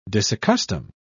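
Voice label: "disaccustom", British English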